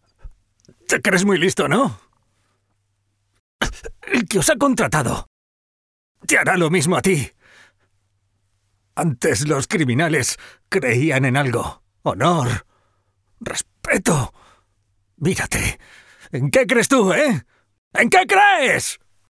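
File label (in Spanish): doblaje España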